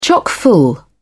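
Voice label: "chock full", British English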